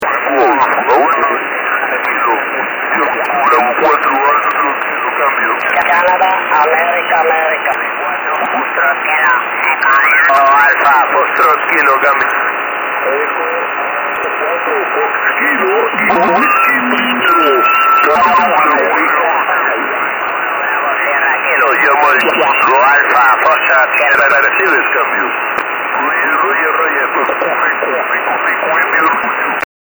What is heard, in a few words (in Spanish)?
llamándome atraves del RS-12, en SSB